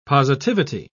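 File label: "positivity", British English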